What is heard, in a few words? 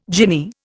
"jinnee", Indian